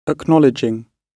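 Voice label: "acknowledging", British English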